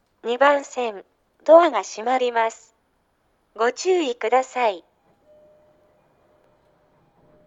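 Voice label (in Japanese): 戸閉放送, 旭型放送(女声); 牛浜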